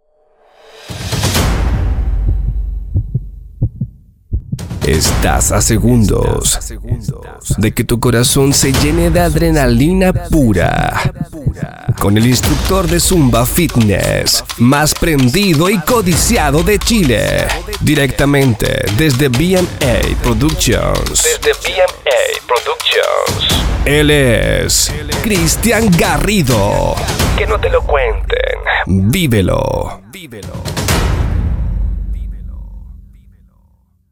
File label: Voice Over